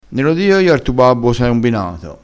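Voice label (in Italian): "nelo", dialettale crespinese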